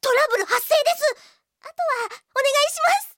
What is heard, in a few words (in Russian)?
zvuki s golosom iaponskoi anime devushki